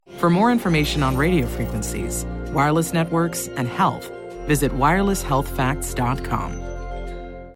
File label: Explainer informative intelligent health facts trustworthy sincere strong; 5G